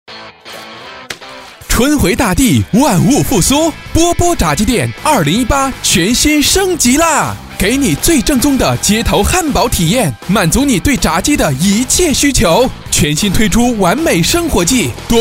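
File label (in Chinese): 促销炸裂炸鸡店; 波波炸鸡店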